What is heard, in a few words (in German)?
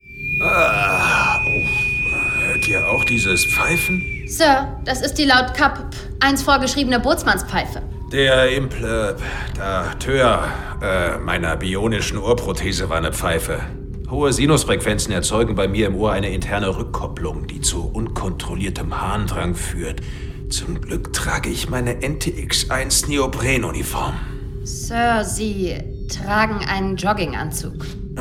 Hoerspiel, maenner; Die Guten der Galaxis